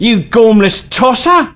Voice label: Taunt, male; svencoop